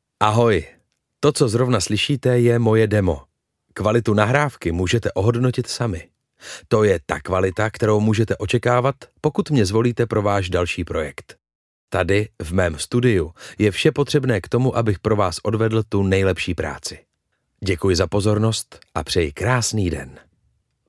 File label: czech male